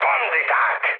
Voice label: enemy detected, speech hun; War Thunder

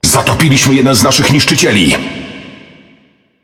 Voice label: Speech Destroy Ally Destroyer, PL; World Of Warships

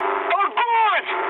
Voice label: attack position, mood high, speech ru

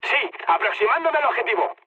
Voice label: near waypoint, mood high, speech; War Thunder

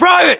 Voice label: rank private, us; localized english; battlechatter

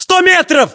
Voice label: threat distance, ru; localized english

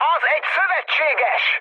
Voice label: player friendly fire, mood high, speech hun; War Thunder

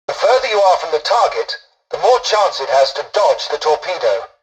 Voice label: tutorial dialogue, english; War Thunder

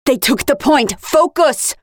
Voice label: Defend Failed, Voiceovers; Project Argo

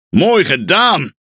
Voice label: Speech Quick Commands Good Game, Languages NL; World Of Warships

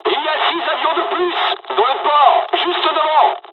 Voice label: dialog, dialogs french; War Thunder

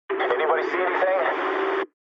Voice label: eng chat, speech eng

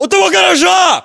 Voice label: landmark near garage, ru; localized english

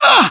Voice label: pain, voiceovers english

